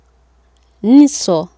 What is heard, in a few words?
"nso", Swahili